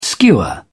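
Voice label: "skua", British English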